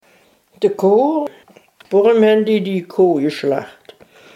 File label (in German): "Koh" im Satz